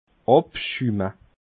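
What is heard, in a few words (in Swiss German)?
"abschumme", Alsatian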